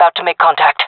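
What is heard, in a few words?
sees enemy, female voice; player vo; Counter Strike Model Pack; CS:GO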